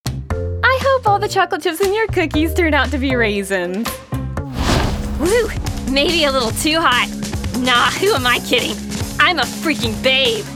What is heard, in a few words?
character demo